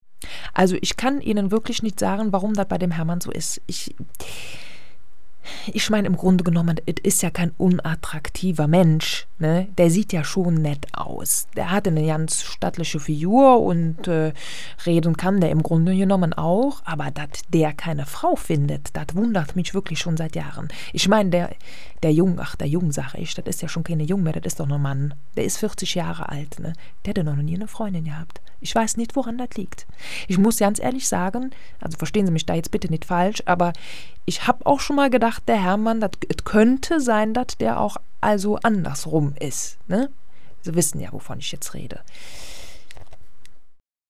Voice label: dialekt koelsch

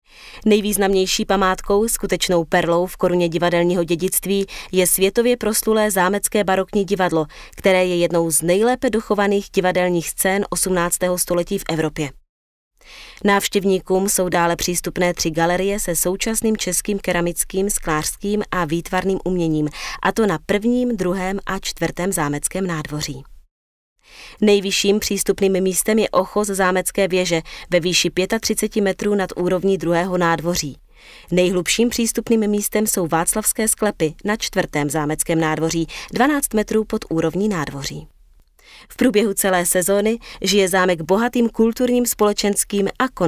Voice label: sachtext imagefilm

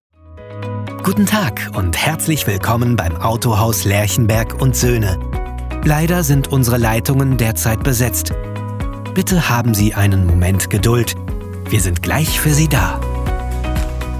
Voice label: telefonansage; Sprecher in Berlin; Muttersprache Deutsch

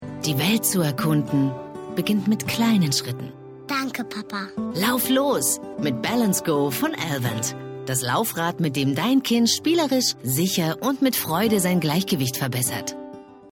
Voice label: imagefilm laufrad; elvent; Muttersprache Deutsch

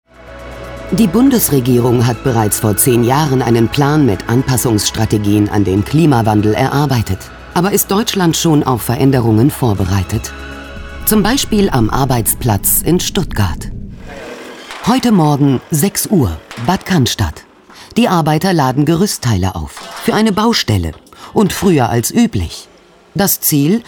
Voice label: doku klimawandel

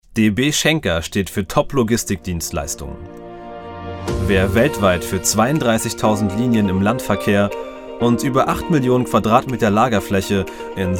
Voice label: werbung

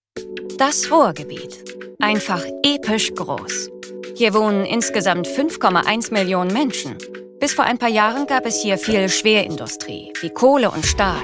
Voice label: Erklärfilm; ruhrpotenzial

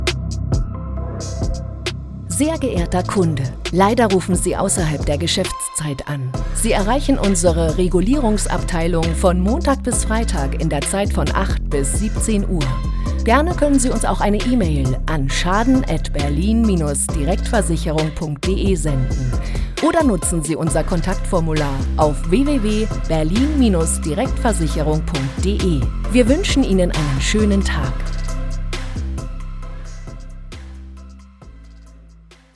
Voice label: telefonansage

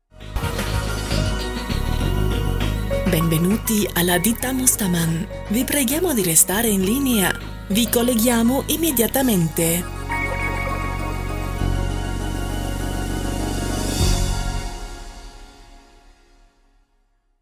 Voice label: italienisch - warteschleife

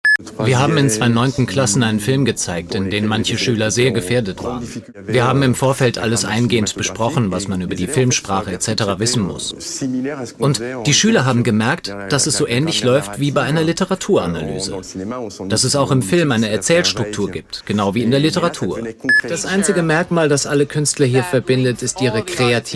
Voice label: voiceovers deutsch

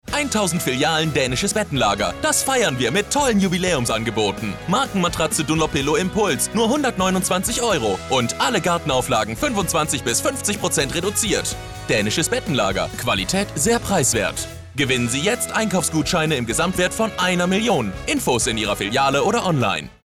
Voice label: funkspot; daenisches bettenlager

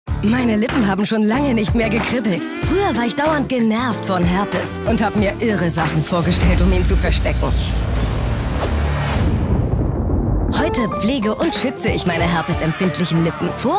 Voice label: tv spot lippencreme; Muttersprache Deutsch; ilon

